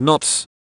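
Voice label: "knot", English; Taranis-Voicebot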